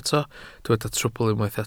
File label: "trwbl", Welsh